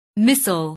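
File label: "missile", English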